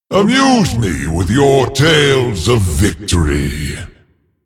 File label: Male Titan Keeper